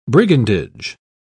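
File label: "brigandage", British English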